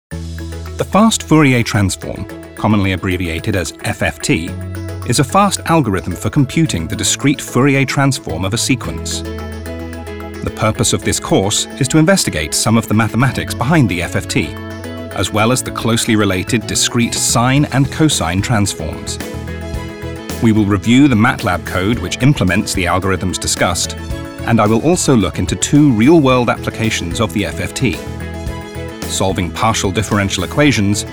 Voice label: Narrative

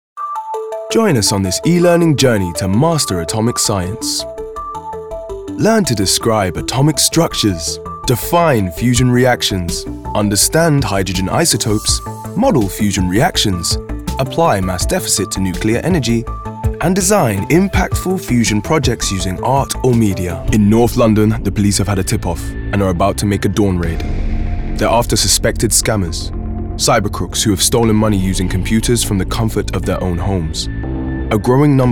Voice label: Narrative

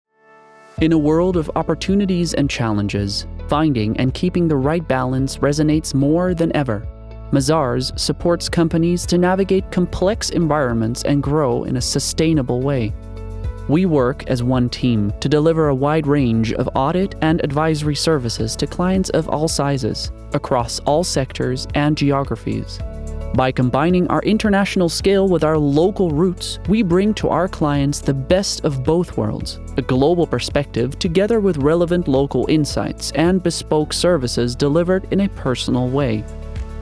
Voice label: corporate

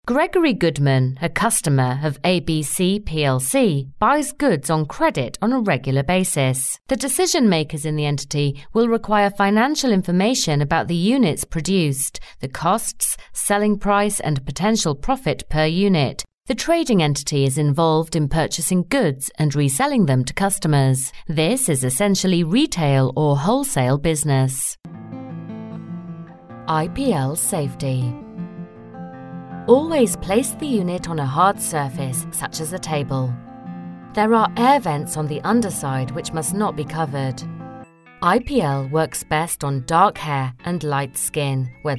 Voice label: Narration

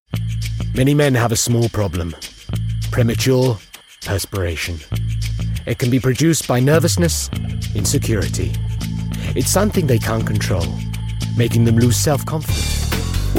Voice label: Commercial

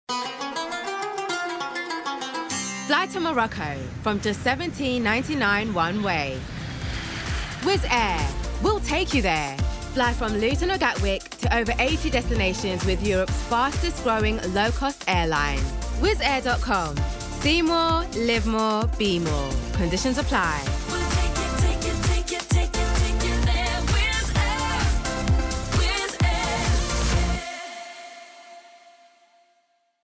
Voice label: promo; WIZZ AIR

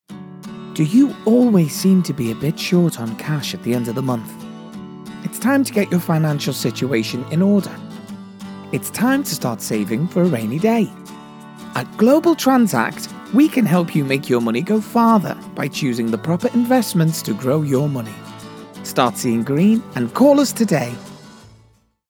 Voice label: soft

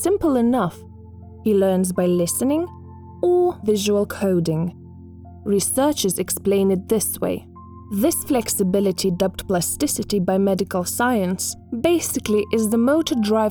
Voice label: EN Language documentary

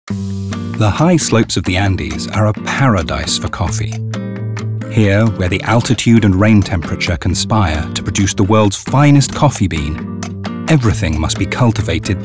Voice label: Commercial

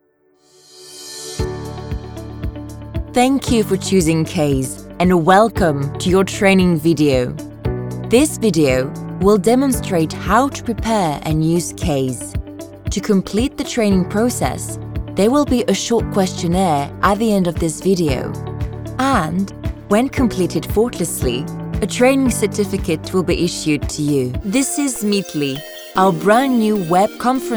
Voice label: Narrative ENG

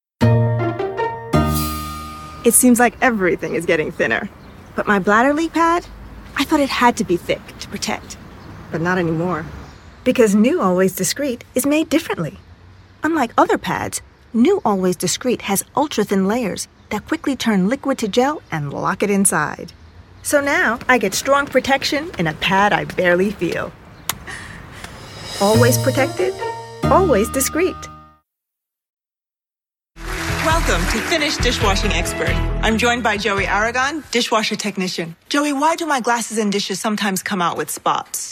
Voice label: American Broadcasted commercials

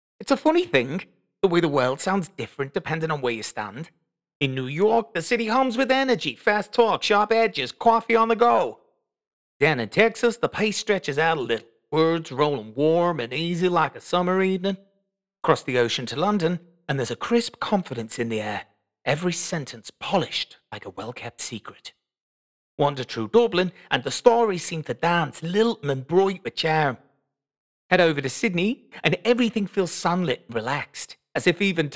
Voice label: Fun, Uplifting, Natural

